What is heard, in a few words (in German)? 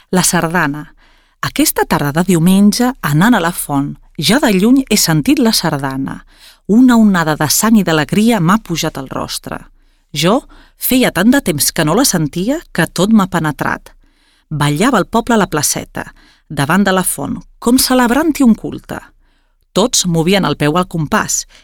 Katalonien W